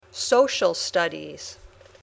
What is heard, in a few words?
"social studies", English